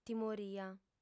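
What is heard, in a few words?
"timoria", Sardinian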